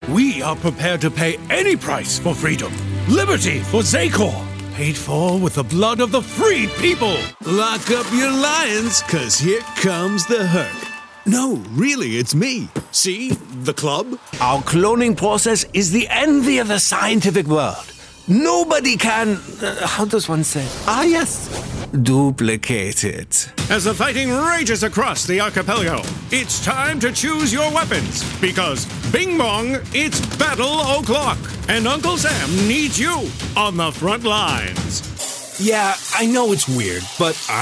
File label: Animation Video Game Voice Over